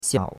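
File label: "xiao3", Mandarin Chinese; Cours-de-Chinois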